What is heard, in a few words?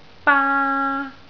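"baa1", Cantonese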